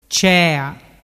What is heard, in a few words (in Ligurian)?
"cea", dialettu